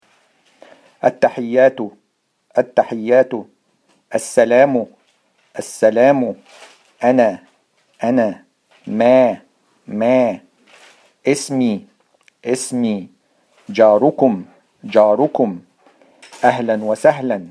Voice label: Pronounce, Arabic